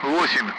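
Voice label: Speech Sound Russian Common Allied Flight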